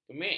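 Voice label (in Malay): "kemik", Malay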